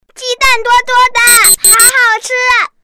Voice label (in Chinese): 大音-; 蛋糕